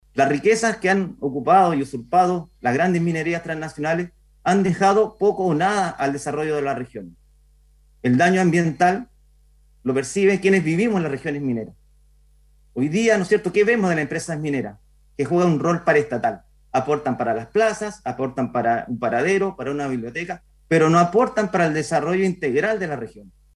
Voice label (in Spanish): cuña